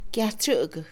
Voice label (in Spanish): atreverse, Mixe, Mujer; Emociones; INALI